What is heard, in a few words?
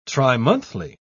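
"trimonthly", British English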